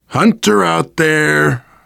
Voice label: heard hunter, voice, biker; Left 4 Dead 2; left4dead2 dlc3; survivor

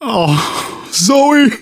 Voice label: grief teen girl, manager, survivor, voice

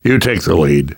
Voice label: lead on, survivor voice namvet; Left 4 Dead 2; left4dead2 dlc3

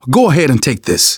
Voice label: alert give item, manager, survivor, voice; Left 4 Dead 2; left4dead2 dlc2

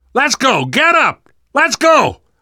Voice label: revive friend loud, namvet, survivor voice

